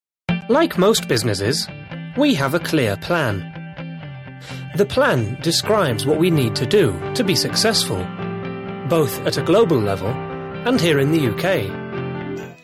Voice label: Corporate